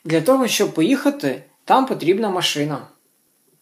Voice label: dialogue, Ukrainian